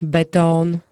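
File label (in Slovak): "betón", Slovak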